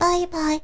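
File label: "baibai", girl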